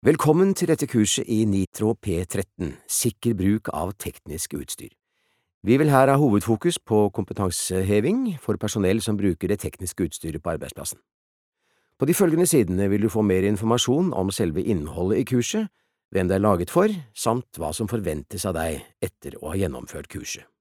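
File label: Norwegian elearning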